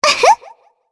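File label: Vox Happy, Japanese; Shea; kingsraid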